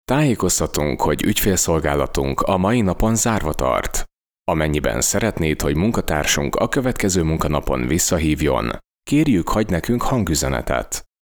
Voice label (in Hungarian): IVR ferfi hangminta tegezo; ArenimTel